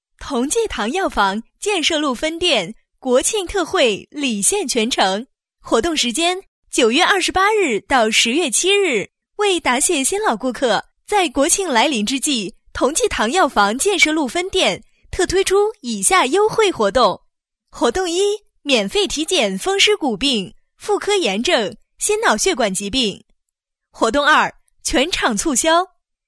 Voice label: female chinese voice over narrative, mandarin